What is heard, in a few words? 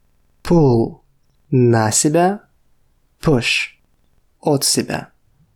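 Russian Pull push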